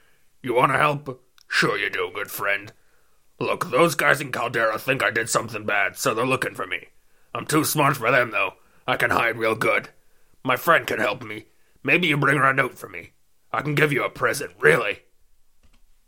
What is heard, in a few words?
orc, voice auditions